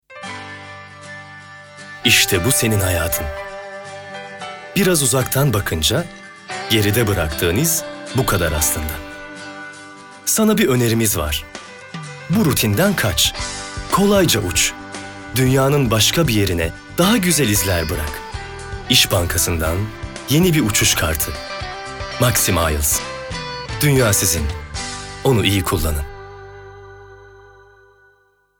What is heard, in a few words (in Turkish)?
sicak samimi